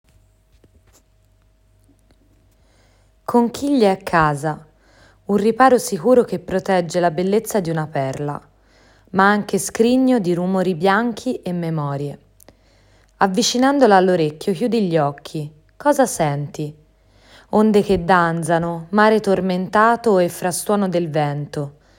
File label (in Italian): Racconto; Calendario ESF; vocabolario straordinario conchiglia